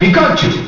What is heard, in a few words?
Announcer; Pikachu; SSBM